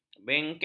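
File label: "bengkeng", Malay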